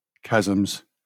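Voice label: "chasms", British English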